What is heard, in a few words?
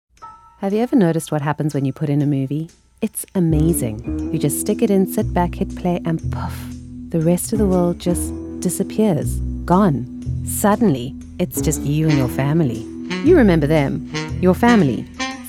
Conversational ads; Sara Lee